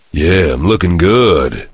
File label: cheer; duke nukem